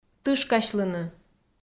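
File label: "тышкасьлыны", Komi, female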